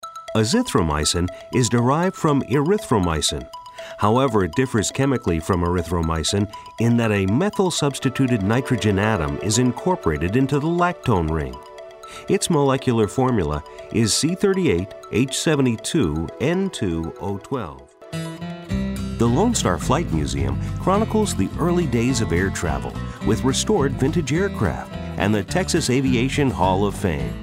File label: Narr